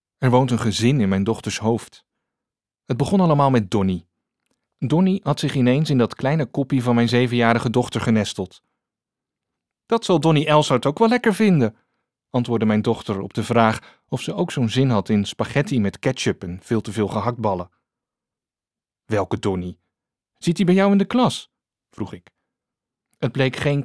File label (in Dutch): Luisterboek roman